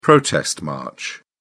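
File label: "protest march", British English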